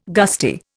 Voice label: "gusty", Indian